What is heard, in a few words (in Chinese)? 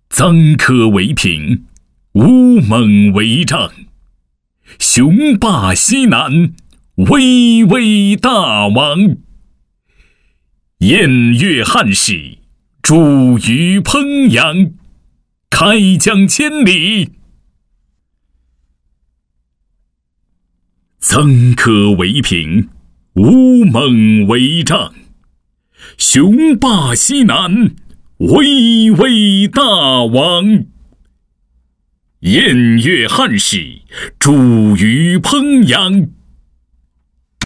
男国 古诗词 气势; 诗词类男声样音